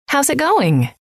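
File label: how s it going, female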